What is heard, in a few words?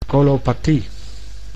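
"colopathie", Dutch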